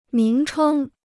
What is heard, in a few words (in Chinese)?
"名称", Chinese